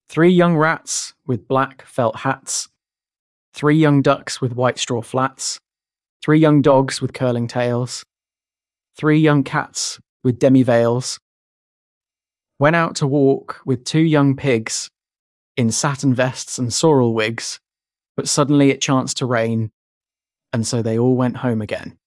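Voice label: Three Young Rats male british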